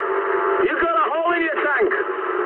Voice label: eng tank damaged mood high, speech eng